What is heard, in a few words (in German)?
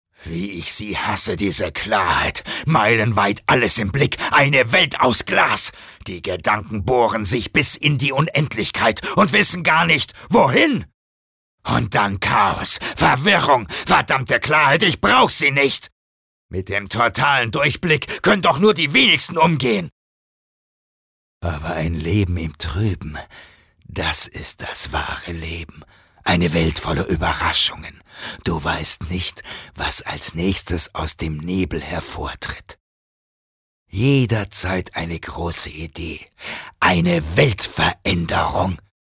Schauspiel Energisch